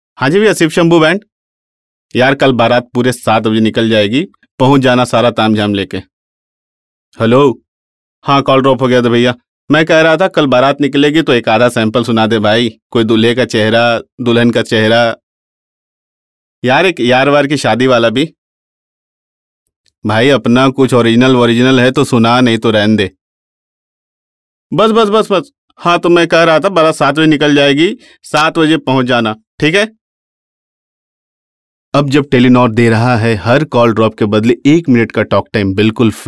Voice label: Hindi, male voice